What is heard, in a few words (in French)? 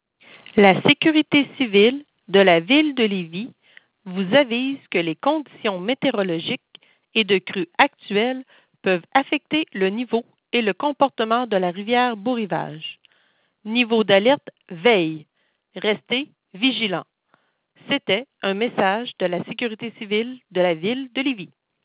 telephone veille francais; riviere beaurivage